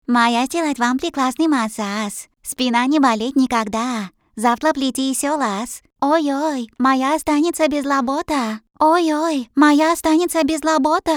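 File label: Chinese man voice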